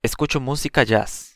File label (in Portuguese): "escucho musica jazz", espanhol; estilos musicais em espanhol